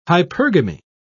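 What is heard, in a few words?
"hypergamy", British English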